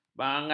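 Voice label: "bangat", Malay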